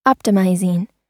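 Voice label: "optimizing", American English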